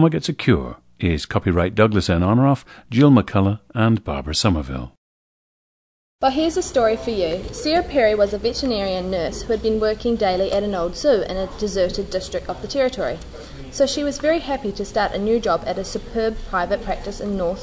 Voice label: New Zealand